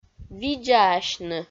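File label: "видзаасьны", Komi, female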